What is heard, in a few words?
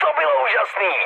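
trick, Czech, mood med; War Thunder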